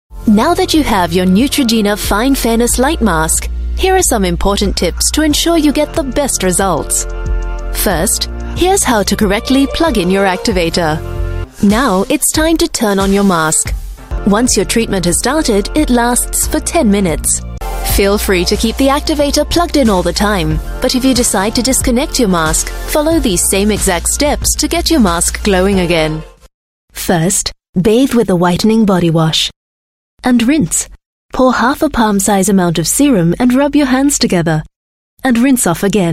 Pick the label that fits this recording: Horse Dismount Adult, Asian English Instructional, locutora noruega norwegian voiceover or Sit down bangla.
Asian English Instructional